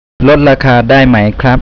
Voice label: "rot dai", Thai; travel